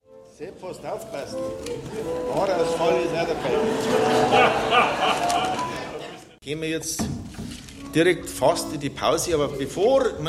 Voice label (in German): Ansage